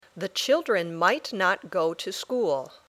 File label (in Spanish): Dictado